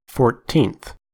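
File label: "fourteenth" US English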